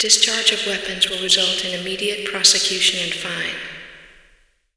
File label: announce; allegiance